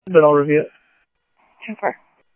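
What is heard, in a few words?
police radio dispatch, ScottsdalePolice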